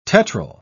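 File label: "tetryl", English, British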